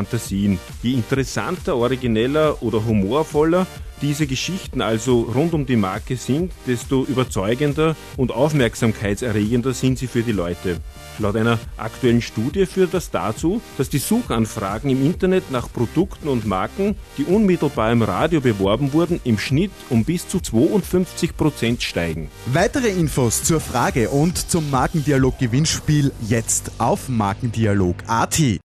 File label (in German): Radiowerbung; MarkenDialog; Radiowerbung fuer Marken